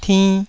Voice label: "tinn2", taiwanese Syllables